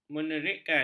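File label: "menerikkan", Malay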